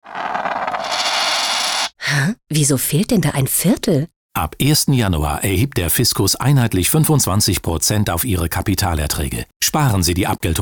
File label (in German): funkspot; sparkasse koelnbonn; abgeltungssteuer